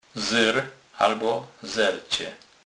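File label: "zer", Polish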